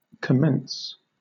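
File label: "commence", British English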